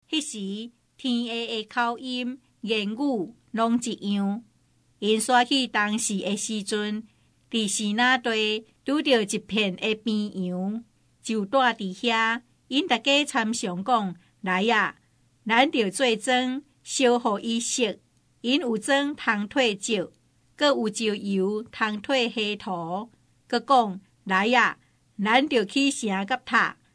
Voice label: taiwanese